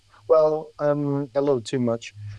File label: Voice Acting es M